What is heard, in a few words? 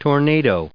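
"tornado", English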